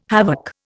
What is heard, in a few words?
"havoc", Indian